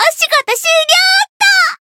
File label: win, jp